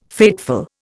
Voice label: "fateful", Indian

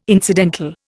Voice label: "incidental", Indian

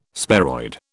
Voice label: "sparoid", British

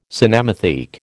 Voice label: "cinematheque", American

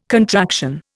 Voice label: "contraction", Indian

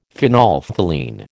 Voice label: "phenolphthalein", American

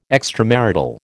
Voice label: "extramarital", American

